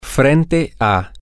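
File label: across From, Spanish, male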